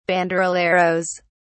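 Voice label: "banderilleros", British English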